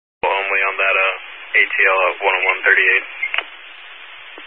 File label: Highway Patrol, scanner; DPS EAST; Dist 13 Phoenix Metro East Area